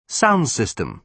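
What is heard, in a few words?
"sound system", British English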